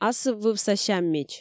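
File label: "асыввывса сяммич" Komi, female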